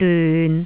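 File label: "dyun6", Cantonese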